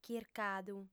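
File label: "chircadu", female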